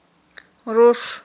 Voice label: "руш", Aghul

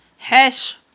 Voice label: "хIаш", aghul

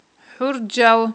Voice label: "хIурджал", Aghul

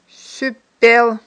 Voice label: "сюппел", Aghul

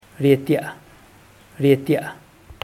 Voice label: "rieti'a", zapoteco teotitlan; dobla

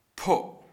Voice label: "to pierce", Kham

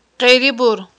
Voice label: "кьирибур", Aghul

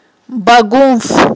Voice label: "багунф", Aghul

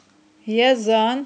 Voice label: "язан", Aghul

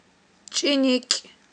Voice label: "чIиникIв", aghul

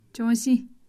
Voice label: cold; kham

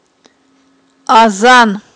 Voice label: "азан", Aghul

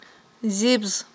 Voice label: "зибз", Aghul

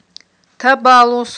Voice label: "табалус", Aghul

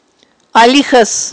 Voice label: "алихьас", aghul

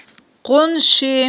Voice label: "къунши", Aghul

